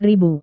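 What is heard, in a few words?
"ribu", Indonesian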